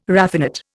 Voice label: "raffinate", Indian

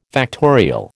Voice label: "factorial", American

